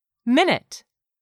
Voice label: "minute", English; 3000 words